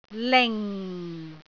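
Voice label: "ling4", Cantonese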